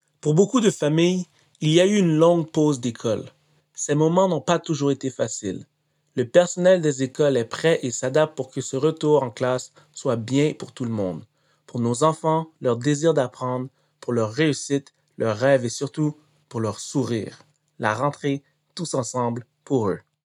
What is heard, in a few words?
Message FR